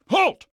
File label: "halt" loud, combine soldier; hl2